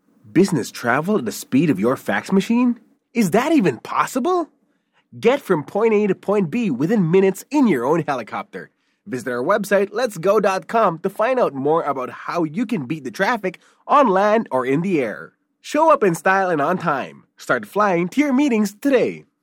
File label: English (Selling, Enthusiastic)